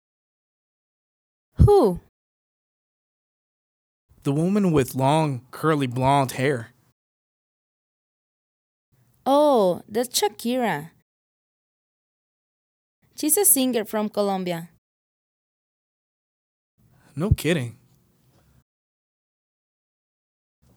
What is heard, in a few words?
Conversation Time Intonation Practice